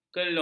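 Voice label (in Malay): "kerlung", Malay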